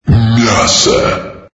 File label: "dominating", female